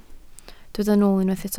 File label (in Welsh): "nool" Welsh